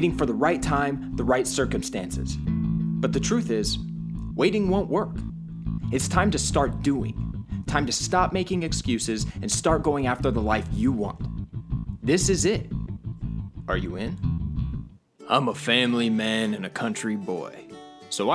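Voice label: voice over; reel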